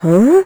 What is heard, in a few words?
confused; Crypt of the NecroDancer